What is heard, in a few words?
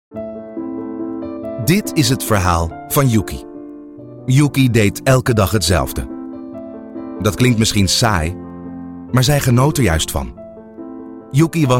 dutch male